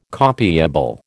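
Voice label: "copiable", American English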